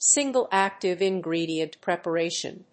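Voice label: "single active ingredient preparation", English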